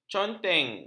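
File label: "conteng", Malay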